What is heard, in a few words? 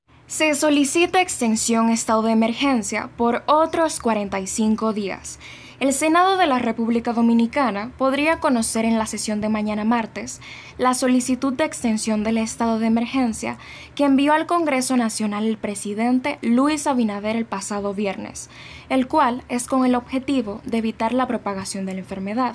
Spanish (LA), Female